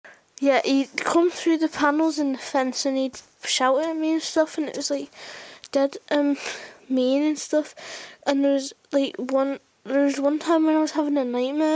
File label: Shouting